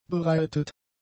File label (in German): "bereitet", German; adjectives